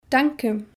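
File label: "Danke", German; Kapitel 2; Alphabet erste Schritte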